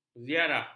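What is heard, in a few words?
"ziarah", Malay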